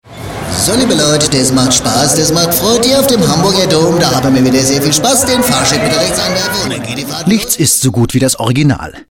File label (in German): hessisch; Hessisch 1